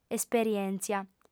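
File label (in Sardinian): "esperièntzia", female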